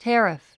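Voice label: "tariff", English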